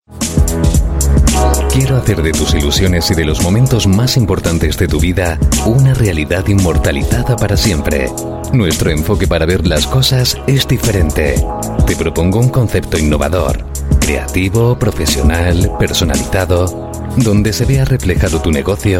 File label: Euro Spanish Male Corporate Reel